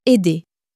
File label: "aider", french